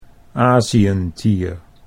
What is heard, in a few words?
"Asientier", Dutch